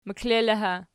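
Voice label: "meklelo" ergative, Archi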